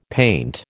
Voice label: "paint", American

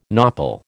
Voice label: "nopal", American

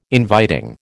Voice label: "inviting", American

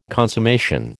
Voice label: "consummation", American

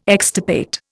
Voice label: "extirpate", Indian

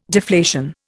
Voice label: "deflation", Indian

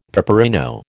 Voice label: "peperino", American

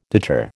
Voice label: "deter", American

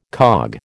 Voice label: "cog", American

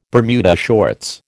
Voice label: "Bermuda shorts", American

